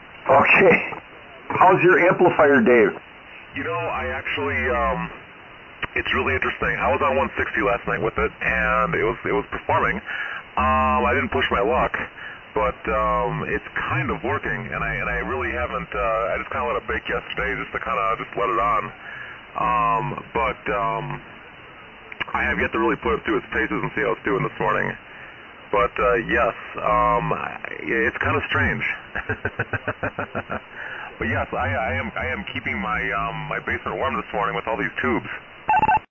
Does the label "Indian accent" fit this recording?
No